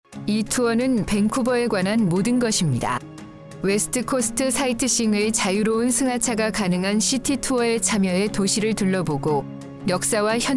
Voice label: Korean